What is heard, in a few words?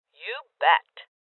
Confirmation Female; Voices Confirmation Female